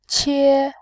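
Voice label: "qie", Mandarin Chinese, first tone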